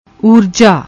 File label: "urjaa", Punjabi; ਊਰਜਾ